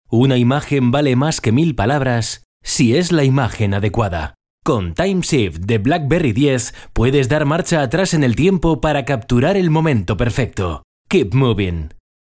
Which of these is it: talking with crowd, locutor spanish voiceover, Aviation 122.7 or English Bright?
locutor spanish voiceover